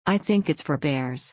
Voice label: fast